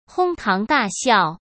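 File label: "哄堂大笑", Mandarin Chinese; chinese-flashcards; hsk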